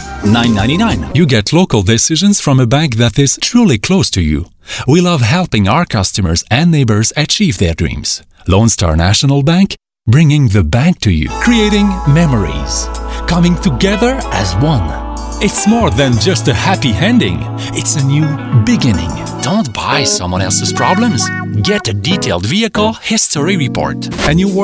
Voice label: Male Eng French Accent; French Canadian; French Canadian Voice Talent

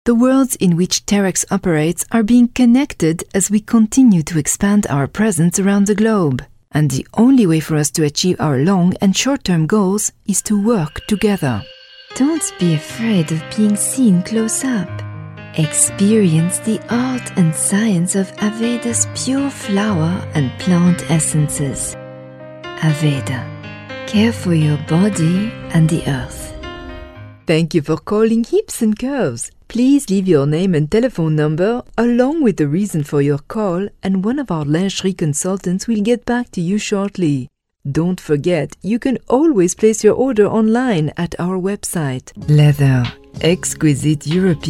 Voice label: Female Eng French Accent; French Canadian; French Canadian Voice Talent

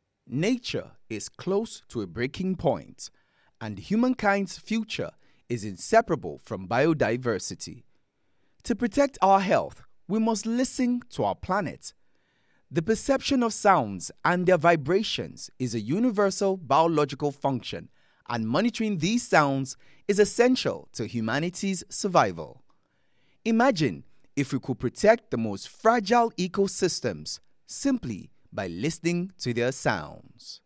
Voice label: Male Nigerian English

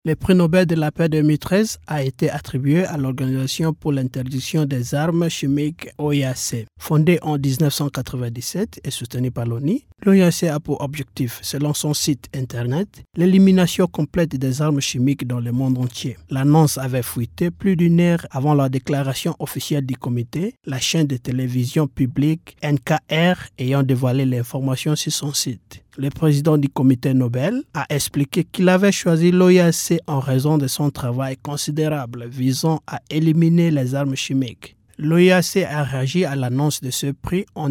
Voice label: MALE FRENCH VOICE OVER SAMPLE

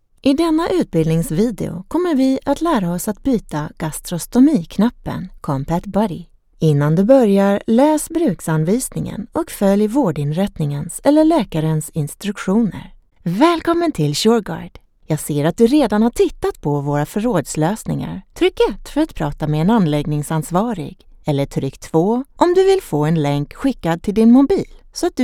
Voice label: Female Svenska Swedish; Swedish Voice Talent

